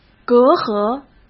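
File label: "隔阂", Mandarin Chinese; chinese flashcards; hsk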